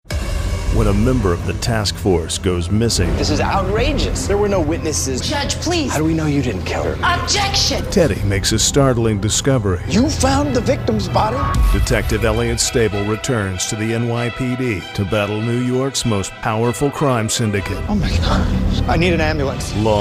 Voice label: TV Show Promo Serious